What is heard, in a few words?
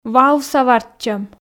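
"ваувса варччӧм", Komi, female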